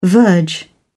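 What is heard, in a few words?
"verge", British English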